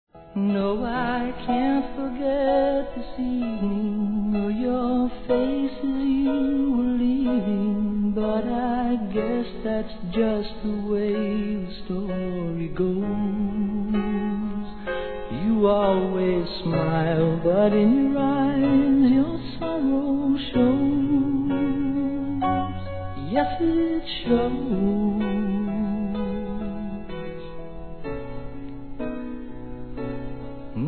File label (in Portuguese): ROM AMOR A PRIMEIRA VISTA, fem